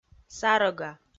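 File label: "сарӧга", Komi, female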